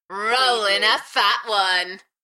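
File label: British female Rolling a fat one Enthusiastic; beanstalk audio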